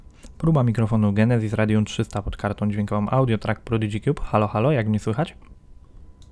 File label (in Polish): Radium bez filtrow